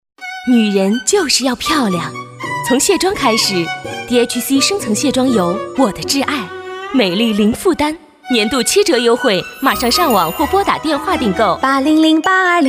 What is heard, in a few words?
VOICES ENGLISH Male Adult; KERSOUND